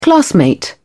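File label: "classmate", English, uk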